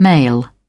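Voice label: "male", British English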